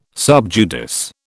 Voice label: "sub judice", British